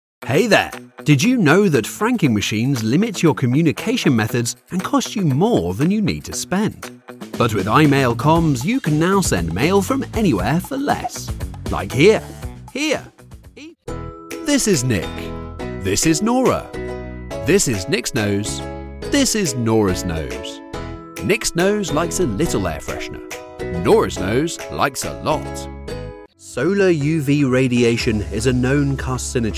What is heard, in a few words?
commercial